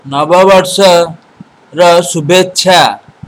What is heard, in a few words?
christmas, odia